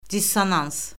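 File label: "dissonans", Azerbaijani; Orfoqrafiya Lüğəti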